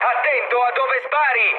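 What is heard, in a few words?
Italian player friendly fire mood med, speech; War Thunder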